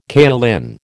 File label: "kaolin", American